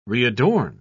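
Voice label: "readorn", British English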